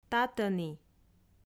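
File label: "татӧні", Komi, female; audio dict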